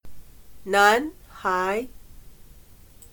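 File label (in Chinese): "男孩", Chinese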